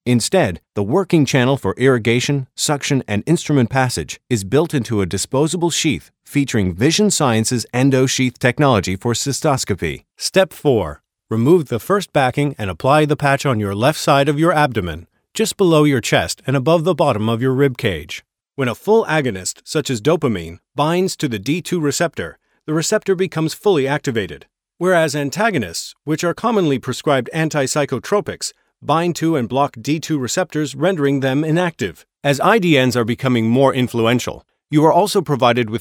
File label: Medical Narration; Full Range Voice Over Demos